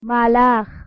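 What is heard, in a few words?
"malakh", Persian, female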